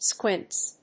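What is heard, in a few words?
"squints", English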